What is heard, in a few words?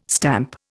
"stamp", Indian